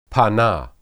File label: "panah", Hebrew